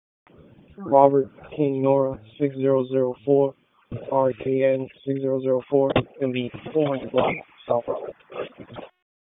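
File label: Police Southeast District Dispatch; Raleigh; wake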